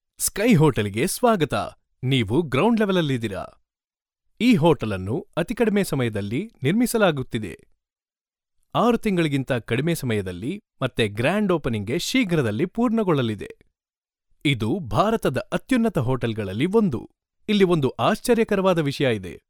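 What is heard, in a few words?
Kannada India, male, voice over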